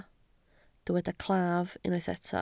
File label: "claf", Welsh